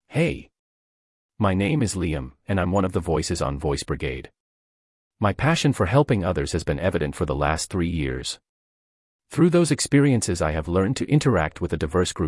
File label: English Canada